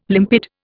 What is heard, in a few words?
"limpid", Indian